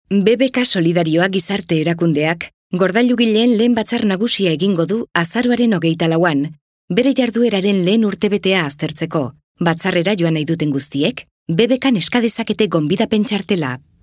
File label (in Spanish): Femenino